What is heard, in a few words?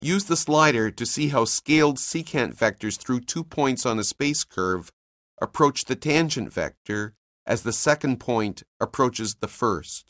narration; CALCULUS 7E; cengage